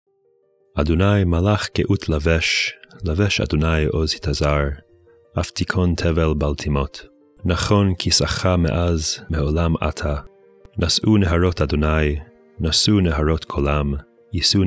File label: poetry and prayer psalm hebrew; Meir Soloveichik